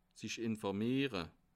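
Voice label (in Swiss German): "informiere", Bas Rhin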